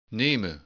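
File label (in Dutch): "nemen", Dutch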